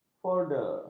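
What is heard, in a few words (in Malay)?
"folder", Malay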